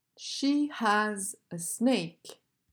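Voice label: "She has a snake", English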